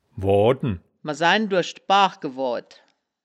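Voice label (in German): "woaden", Wittlicher Wörterbuch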